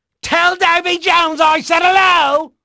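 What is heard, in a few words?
English male; Pirates Of The Caribbean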